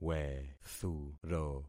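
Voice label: "wethuro", concatenated syllables; Affixing experiment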